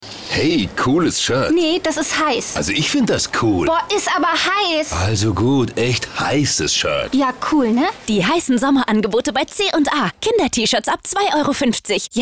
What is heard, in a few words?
Radiospot